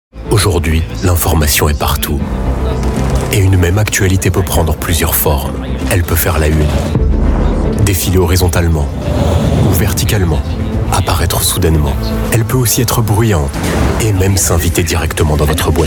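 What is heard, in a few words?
French, seslendirme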